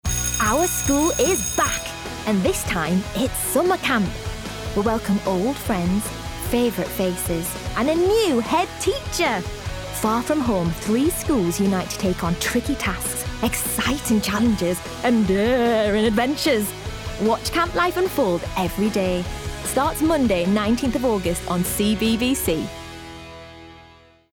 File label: Promo; CBBC